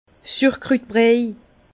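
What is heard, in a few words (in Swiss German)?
"surkrutbriej", Alsace Bas Rhin; vin; saumure de choucroute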